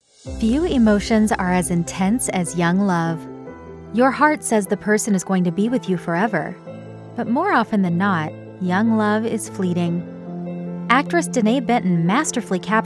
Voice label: elearning